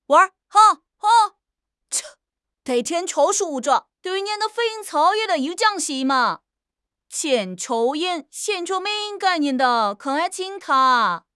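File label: "wan2 r5", Mandarin Chinese; HSK2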